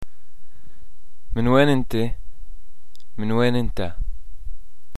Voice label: where are you from, arabic, pl